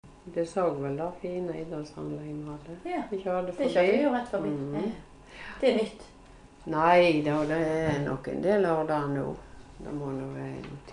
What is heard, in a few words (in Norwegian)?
Ulvik, dialekt